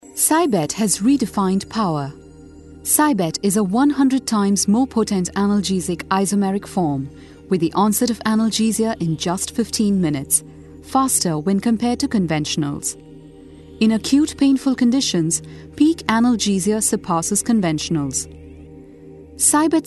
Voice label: corporate